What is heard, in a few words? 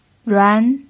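"ruan" Mandarin Chinese, second tone, pinyin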